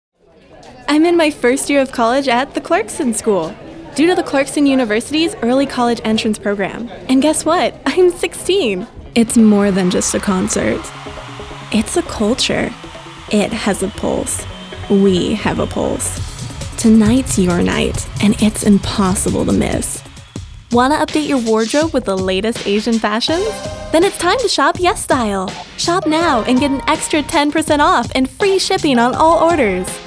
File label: Commercial